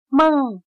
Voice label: "梦", Chino

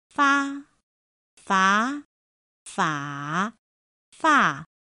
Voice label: "fā" "fá" "fǎ" "fà", Chino

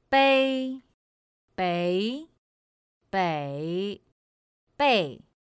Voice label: "bēi" "béi" "běi" "bèi", Chino

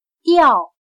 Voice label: "掉", Chino

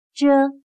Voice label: "着", Chino

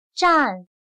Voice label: "战", Chino

